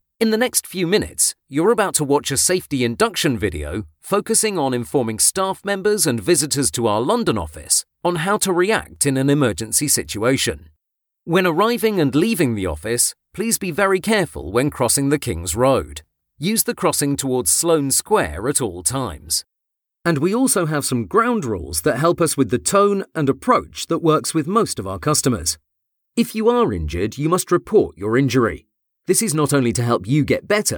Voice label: English British e-learning